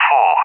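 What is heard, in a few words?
"for", English, UK; DCS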